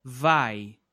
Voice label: "vai!", portuguese